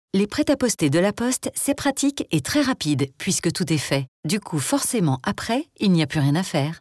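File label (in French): PUB La Poste, comedienne voix off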